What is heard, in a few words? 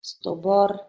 "stobor", Bulgarian